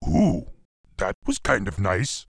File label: Grunt pissed, en; 苦工（魔兽争霸3）; 兽人